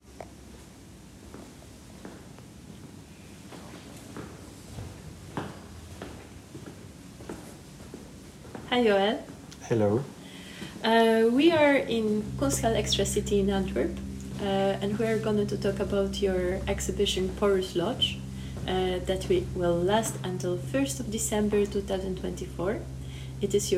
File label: Podcast with noise